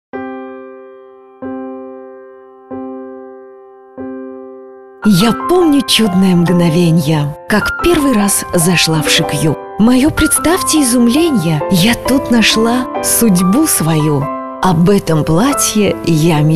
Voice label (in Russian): Odezhda informatsionnyiy zhenskiy